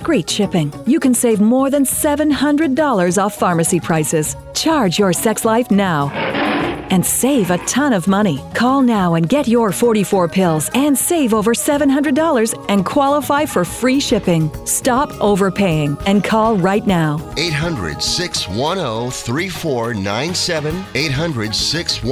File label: Female Tiger, radio; BLUE PILL